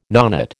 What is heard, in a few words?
"nonet", American